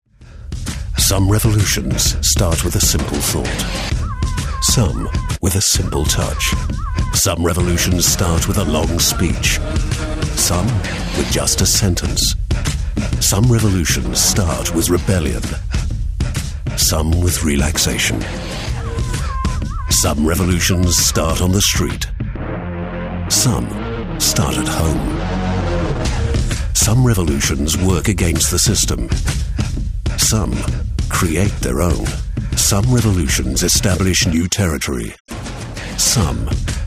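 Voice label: British English, Kraftvoll; Bosch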